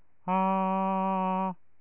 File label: A MAN